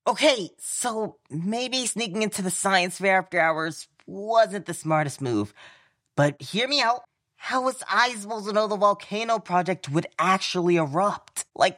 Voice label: Chipmunk Student